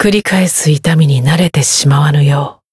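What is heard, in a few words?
win, Japanese; clarte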